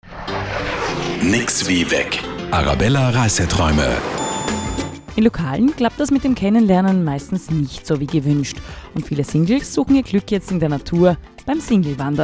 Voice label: radio; singlewandern; radio arabella